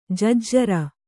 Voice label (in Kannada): "ಜಜ್ಜರ", Kannada